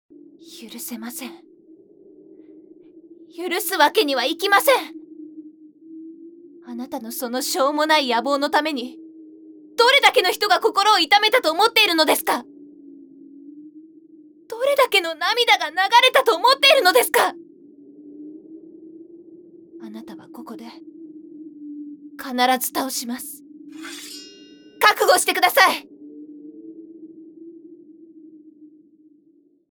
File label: CV Girl